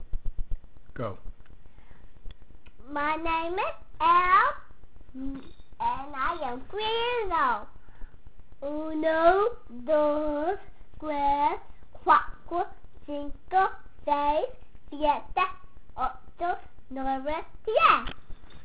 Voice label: Count in Spanish; EDU 223 spring 2005 Course Portfolio